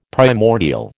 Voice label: "primordial", American

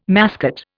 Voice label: "mascot", Indian

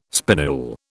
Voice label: "spinule", British

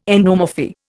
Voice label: "endomorphy", Indian

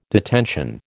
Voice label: "detention", American

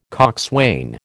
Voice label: "coxswain", American

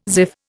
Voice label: "ziff", Indian

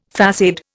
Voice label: "façade", Indian

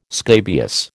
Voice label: "scabious", American

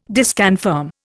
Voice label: "disconfirm", Indian

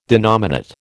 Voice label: "denominate", American

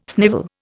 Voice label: "snivel", Indian

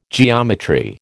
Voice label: "geometry", American

